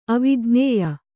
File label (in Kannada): "ಅವಿಜ್ಞೇಯ", Kannada